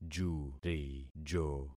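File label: "jurijo", concatenated syllables; Affixing experiment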